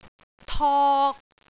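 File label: "tok3", Cantonese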